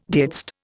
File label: "didst", Indian